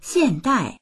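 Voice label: "xiàndài" Mandarin Chinese; 现代; 現代; HSK４級必修単語集（X～Z音声付）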